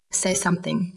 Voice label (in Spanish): Voice Acting es